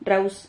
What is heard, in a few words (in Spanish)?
"rraus", Silabas